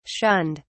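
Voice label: "shunned", British English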